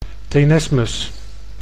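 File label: "tenesmus", Dutch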